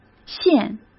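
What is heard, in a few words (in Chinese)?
"献" Mandarin Chinese; hsk; chinese-flashcards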